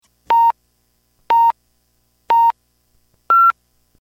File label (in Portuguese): tempo, radio; Radio Gaucha Porto Alegre RS